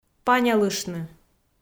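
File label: "панлыштны", Komi, female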